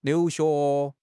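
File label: "liu2 xue2", Chinese; HSK; HSK3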